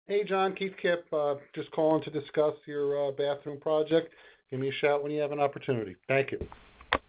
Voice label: Voice Message